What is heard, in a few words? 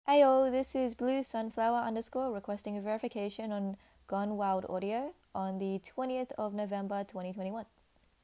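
Female verification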